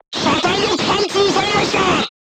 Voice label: voice message player tank hit, crew tanks japanese